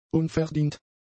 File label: "unverdient", German; adjectives